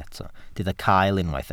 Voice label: "cael", Welsh